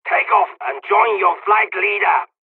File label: takeoff, hungarian; midway jpn; War Thunder